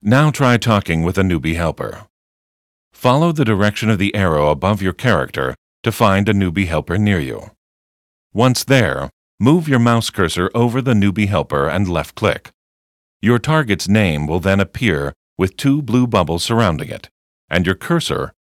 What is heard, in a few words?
tutorial voice; lineage2